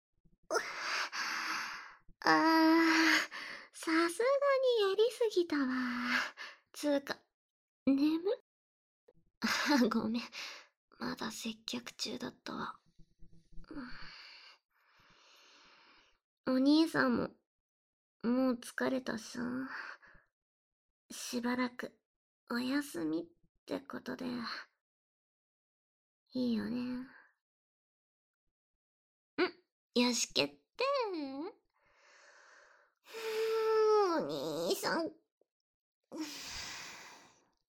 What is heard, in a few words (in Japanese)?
ピロートーク SE 無し